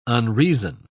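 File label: "unreason", British English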